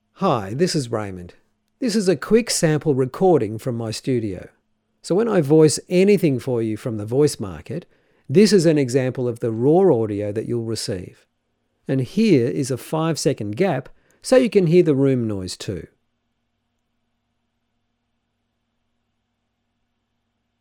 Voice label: Studio Sound Check